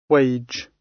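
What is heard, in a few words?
"wage" British; Business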